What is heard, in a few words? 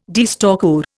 "deerstalker", Indian